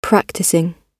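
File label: "practising", British English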